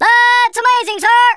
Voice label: amazing, Drill Sergeant; Worms Armageddon